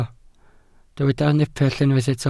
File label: "nepell", welsh